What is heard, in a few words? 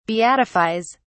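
"beatifies", British English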